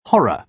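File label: "horror", British English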